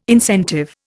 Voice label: "incentive", Indian